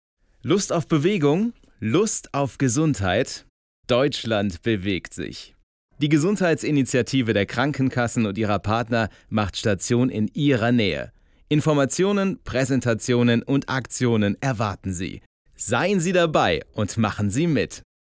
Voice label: Werbung Gesundheit Trocken

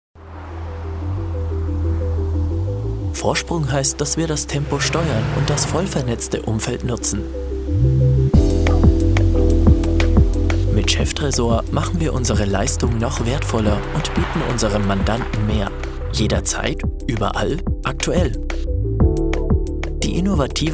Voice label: Imagefilm; Cheftresor

